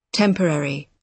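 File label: "temporary", British English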